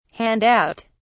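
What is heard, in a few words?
"hand out", British English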